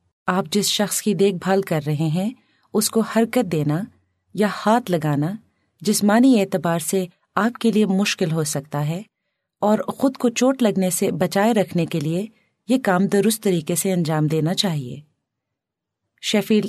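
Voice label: Urdu female corporate; voice-over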